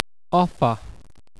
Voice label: "offer", uk

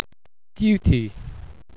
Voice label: "duty", uk

